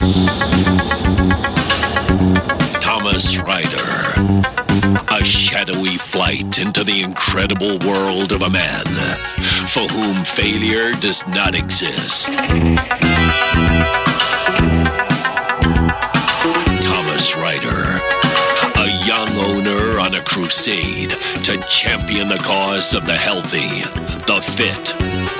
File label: american voice; knight rider